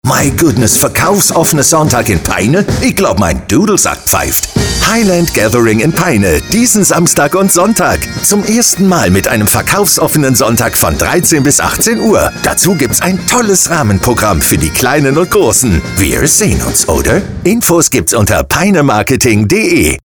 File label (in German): Funkspot; Peine-Marketing; Highland-Gathering